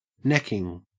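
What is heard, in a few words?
"necking", English, Australian accent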